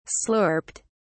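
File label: "slurped", English, British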